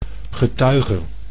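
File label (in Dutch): "getuige", Dutch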